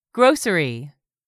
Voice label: "GROCERY", english; 3000 words